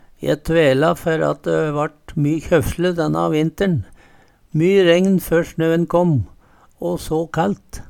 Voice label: tvele, Numedalsmål

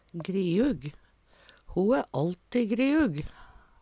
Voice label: griug, Numedalsmål

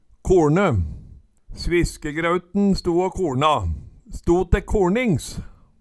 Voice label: "korne", Numedalsmål

